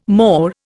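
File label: "mor", Indian English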